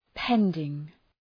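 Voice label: "pending", English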